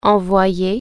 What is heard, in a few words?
"send", French